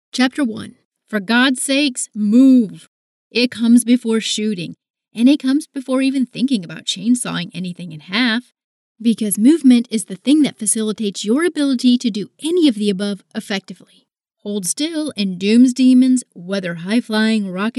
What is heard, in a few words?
Audiobook; Doom 4 Strategy Guide